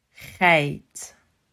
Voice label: "Geit", Dutch